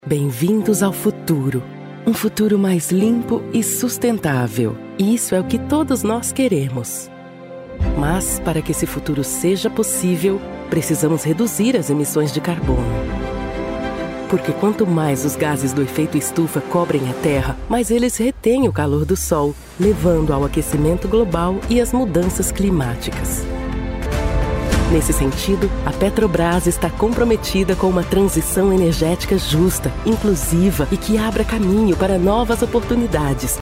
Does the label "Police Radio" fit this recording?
No